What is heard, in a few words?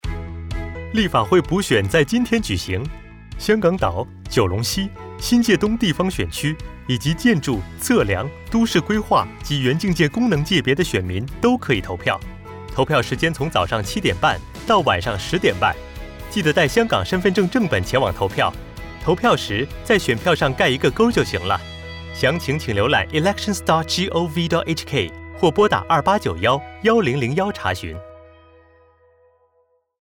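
Mandarin; Polling Day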